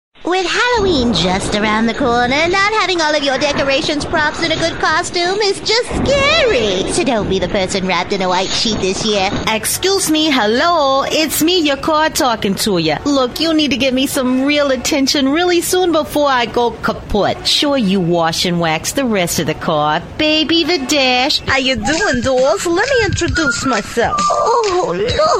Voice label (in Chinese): 外籍女 Characters